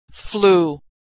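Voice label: "flew", American English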